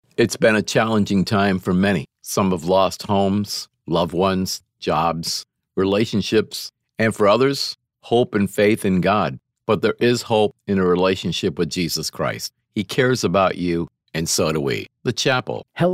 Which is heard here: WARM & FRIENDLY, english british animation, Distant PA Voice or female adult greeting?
WARM & FRIENDLY